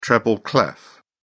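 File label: "treble clef", British English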